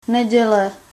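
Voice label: "neděle", Czech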